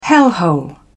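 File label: "hellhole", British English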